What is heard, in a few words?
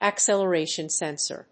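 "acceleration sensor", English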